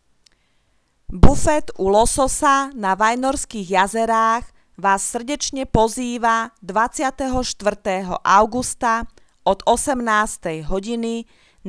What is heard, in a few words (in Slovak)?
komercne hlasenie bufet; bufet u lososa